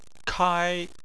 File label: "kai1", Chinese